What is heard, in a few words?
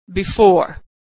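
"before", American English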